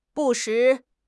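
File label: "不时", Chinese; HSK6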